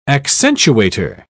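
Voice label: "accentuator", English, British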